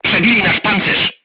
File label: voice message player tank hit, polish